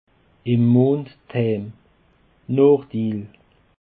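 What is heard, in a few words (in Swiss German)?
"nachtill", Alsatian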